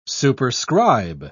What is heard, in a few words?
"superscribe", en uk